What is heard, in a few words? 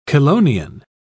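"chelonian", British English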